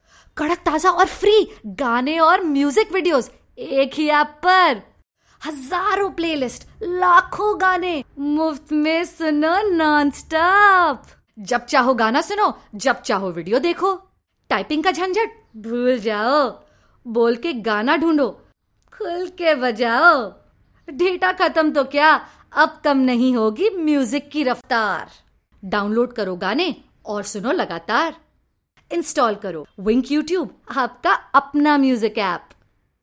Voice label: Indian English, Female; Wynktube